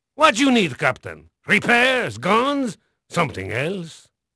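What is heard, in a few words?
Spanish male, voice; Pirates Of The Caribbean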